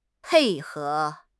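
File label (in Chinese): "pei4 he2", Mandarin Chinese; 汉语口语新时代-初级下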